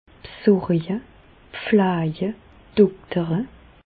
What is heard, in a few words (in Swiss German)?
"bsorje", Bas Rhin; medecine; soigner